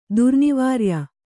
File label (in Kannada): "ದುರ್ನಿವಾರ್ಯ", Kannada